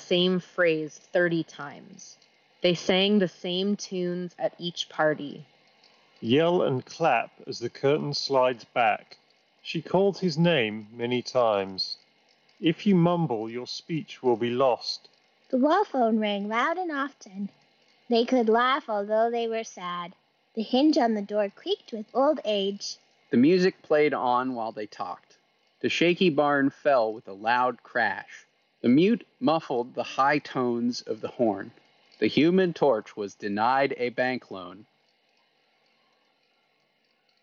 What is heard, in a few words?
Wind microphone sample; Bose QC Ultra Headphones